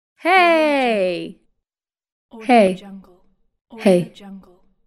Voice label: female voice hey

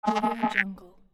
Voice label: cyber speech

